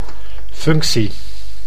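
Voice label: "functie", Dutch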